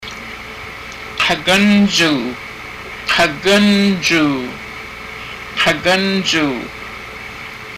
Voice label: inside of throat, haida; Kagánjuu; Throat-inside

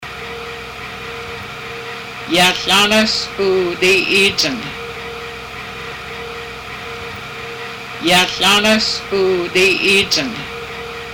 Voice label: clan introduction male, haida; Laanáas uu díi íijang; (Male) I am

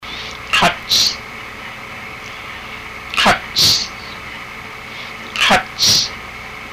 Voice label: head, haida

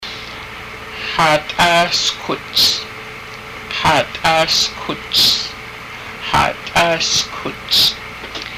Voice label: human skeleton, haida; Xaat'áa skuts

